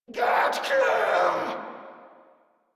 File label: Attack Grineer Female; Warframe